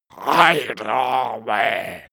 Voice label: male curse evil